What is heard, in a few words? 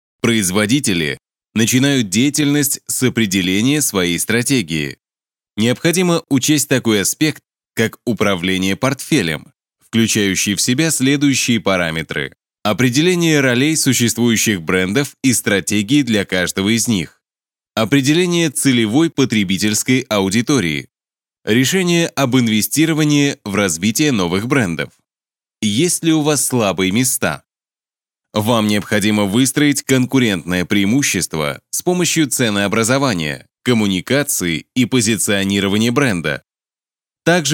male